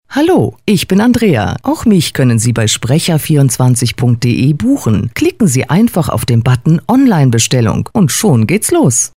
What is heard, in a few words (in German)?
weiblich